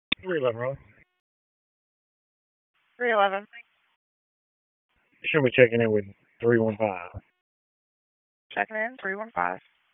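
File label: Police Northeast District Dispatch, Raleigh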